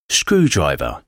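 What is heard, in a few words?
"screwdriver", English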